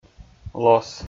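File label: "лос", Komi, male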